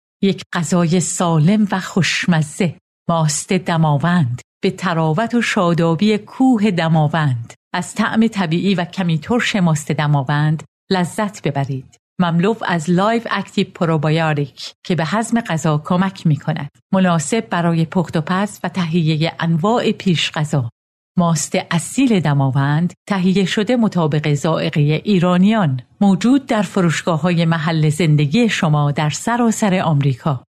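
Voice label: Farsi, female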